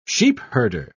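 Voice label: "sheepherder", British English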